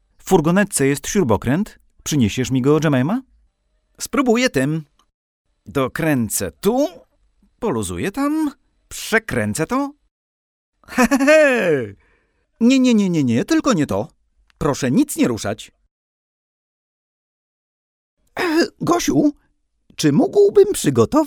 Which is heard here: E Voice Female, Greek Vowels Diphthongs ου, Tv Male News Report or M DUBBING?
M DUBBING